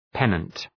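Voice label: "pennant", English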